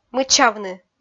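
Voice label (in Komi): "мыччавны", Komi, female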